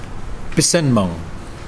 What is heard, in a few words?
Mokilese hair